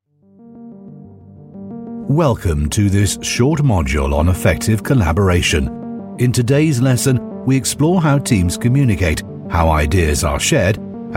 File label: elearning, Intelligent, Powerful Male Voice